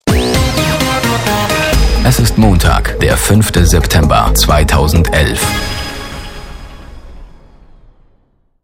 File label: station voice (news-dropper)